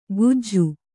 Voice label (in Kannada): "ಗೂಜು", Kannada